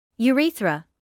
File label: "urethra", medical English